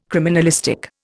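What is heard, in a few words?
"criminalistic", Indian English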